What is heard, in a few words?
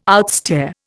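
"outstare", Indian English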